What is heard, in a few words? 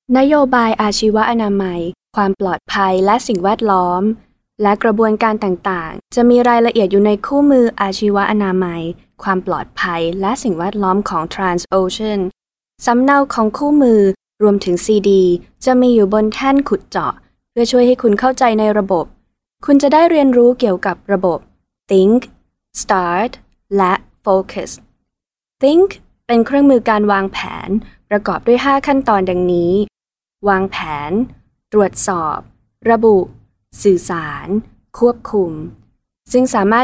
Thai, female